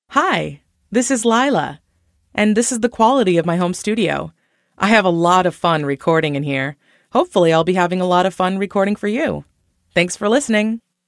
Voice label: Studio Quality